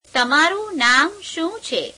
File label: gujarati what is your name